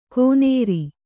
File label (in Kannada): "ಕೋನೇರಿ", Kannada; konēri; noun